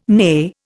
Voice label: "neigh", Indian